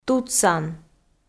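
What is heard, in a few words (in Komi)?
"тутсан", Komi, female; kpv; audio dict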